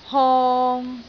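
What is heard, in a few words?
"hong3", Cantonese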